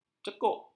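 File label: "cekcok", Malay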